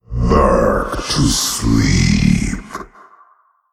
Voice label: Male Old God Concede

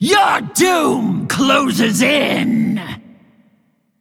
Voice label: Female Elemental Attack

